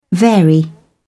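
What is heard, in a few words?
"vary", British English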